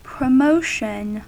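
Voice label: "promotion", US English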